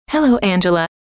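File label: hello, female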